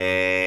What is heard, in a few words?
"hey" F#3; Octagon